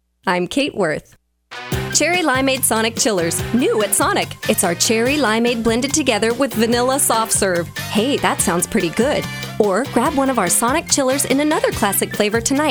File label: voice over commercial